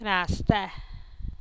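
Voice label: reckless poorly done, Teotitlán del Valle Zapotec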